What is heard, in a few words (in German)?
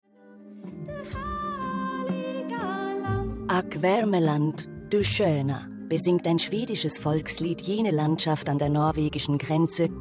Reportage Kommentar; ARTE; Selma Lagerlöf; LOFT VOICES